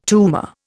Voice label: "tumour", Indian